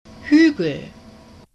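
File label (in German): "huegel", German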